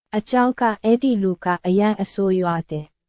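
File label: Myanmar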